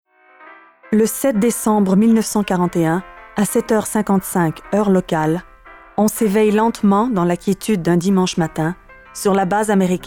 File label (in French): narration documentaire